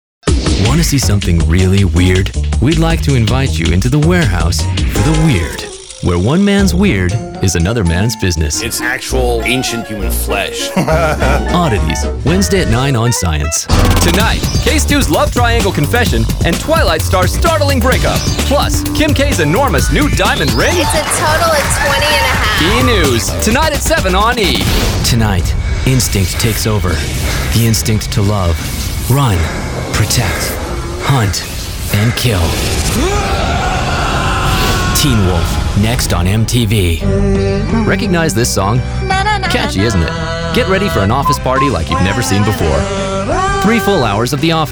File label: Promos